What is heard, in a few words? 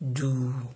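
"doo", Nigerian Pidgin, male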